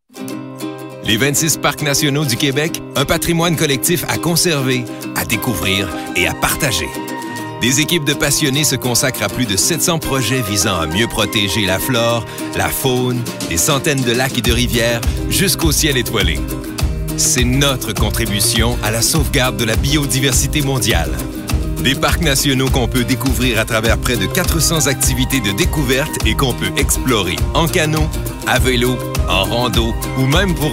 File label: french canadian narration